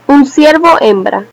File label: doe desc es; mammals; deer; tuxpaint-stamps